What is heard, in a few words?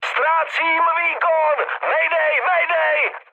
damaged engine, speech cze, mood high